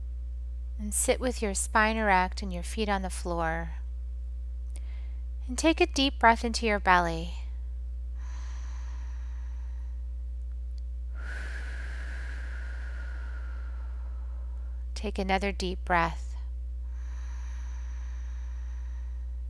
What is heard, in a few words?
Treasure Box Sanctuary Healing Meditation